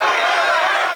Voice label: angry demonstrator; HEDZReloaded